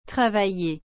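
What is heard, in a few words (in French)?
"travailler", French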